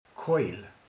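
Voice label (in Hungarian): "huevös", Hungarian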